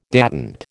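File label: "détente", American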